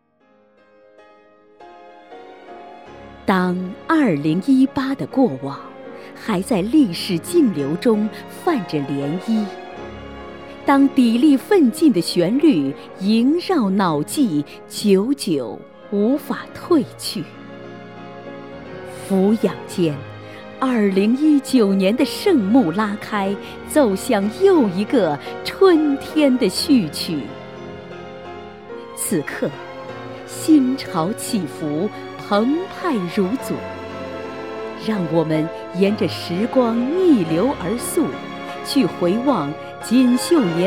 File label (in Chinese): 女, 朗诵, 音乐; 致敬2018